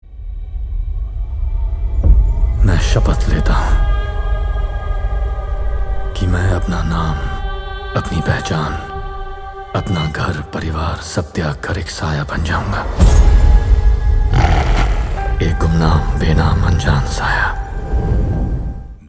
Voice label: Dialogue Ringtone Hindi; WAR 2; Hrithik Roshan